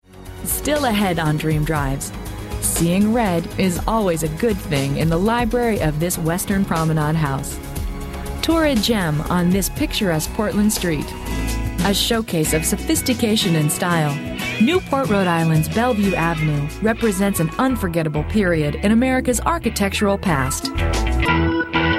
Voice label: TV narration